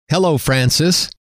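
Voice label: hello, male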